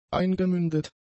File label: "eingemündet", German; adjectives